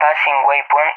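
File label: "passing waypoint", English, SPAIN; DCS; Allied Flight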